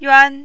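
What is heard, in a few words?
"yuan1", mandarin, high level tone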